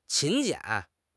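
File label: "勤俭", Mandarin Chinese; HSK6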